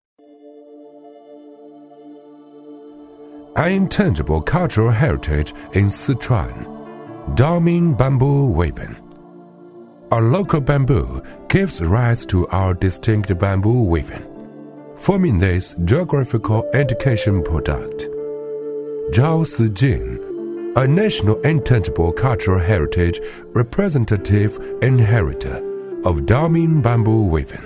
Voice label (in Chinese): 男 英文 非遗讲解; 中英双语; 大气浑厚